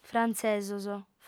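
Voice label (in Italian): "frantzesos", lingua sarda, female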